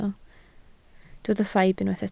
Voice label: "saib", Welsh

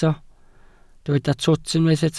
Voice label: "twt", Welsh

